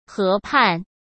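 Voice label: "河畔", Mandarin Chinese; chinese flashcards; hsk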